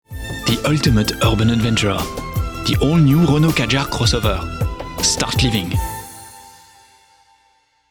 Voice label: French commercial

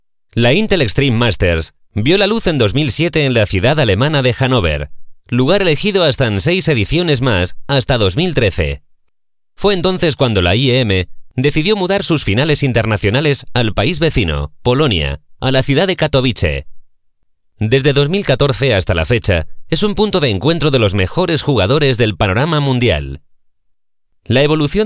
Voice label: spanish e-learning

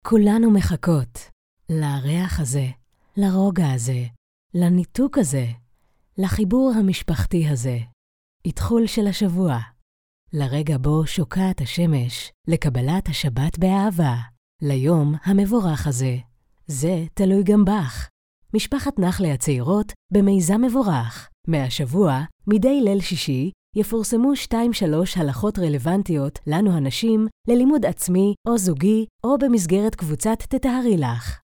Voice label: hebrew

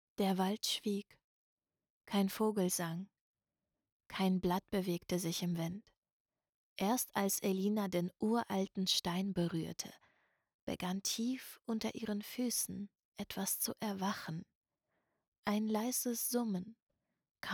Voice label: german audiobooks

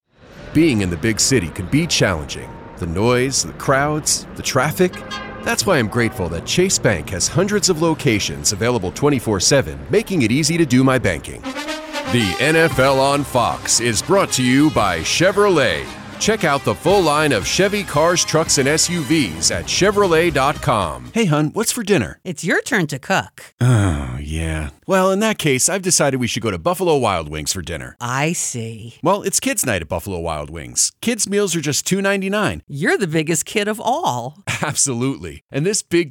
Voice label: english american commercial; American English voice over professional

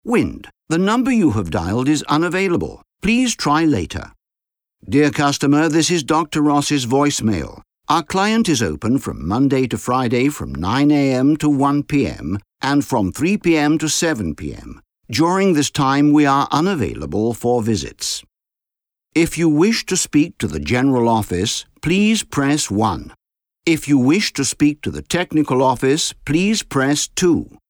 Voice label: english british ivr

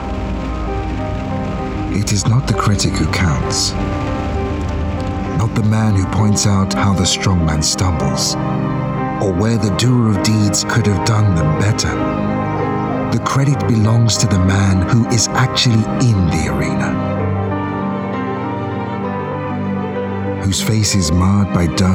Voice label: english british promos; african english

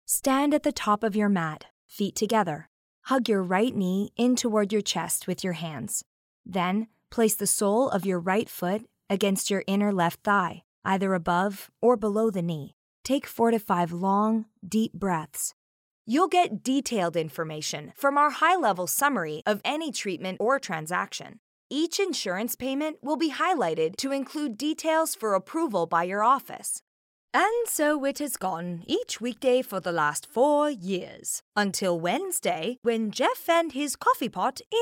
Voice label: english canadian narration; Trilingual VoiceOver specialist

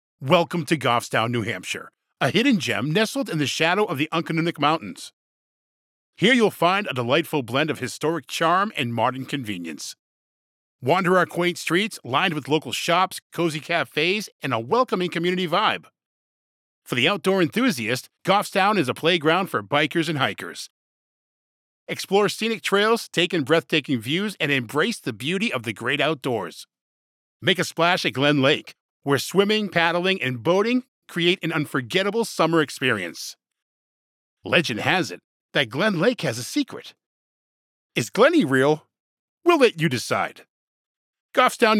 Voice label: english american documentaries

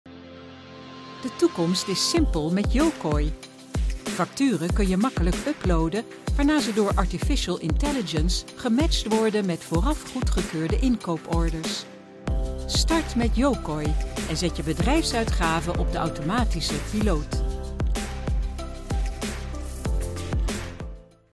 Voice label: dutch corporate videos

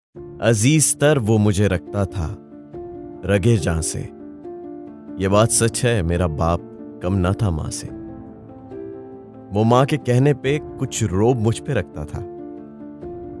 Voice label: Urdu promos

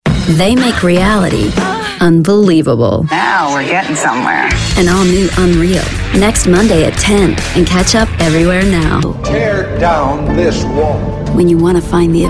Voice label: english british promos

